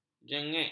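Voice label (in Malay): "jengek", Malay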